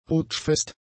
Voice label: "rutschfest", German; adjectives